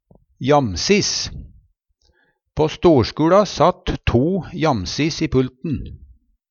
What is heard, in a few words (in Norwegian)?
"jamsiss", Numedalsmål; ved sida av kvarandre